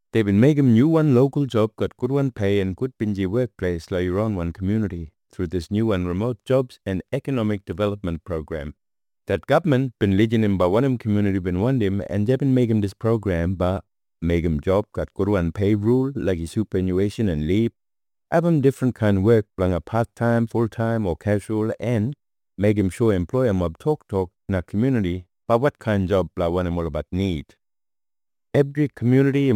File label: kriol; remote jobs; employment and economic development